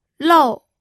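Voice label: "lou", fourth tone, pinyin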